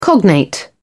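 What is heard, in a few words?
"cognate", British English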